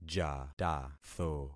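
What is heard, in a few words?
"jatatho", concatenated syllables; Affixing experiment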